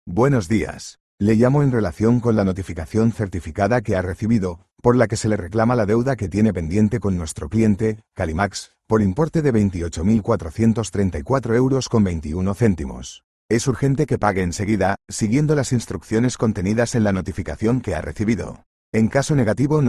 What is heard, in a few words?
audio call